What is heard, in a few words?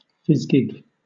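"fizgig", British English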